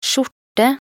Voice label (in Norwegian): "skjorte", nb NO